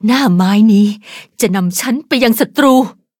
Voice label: level up, th; cookierun